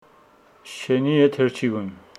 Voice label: Homshetsi the dogs are running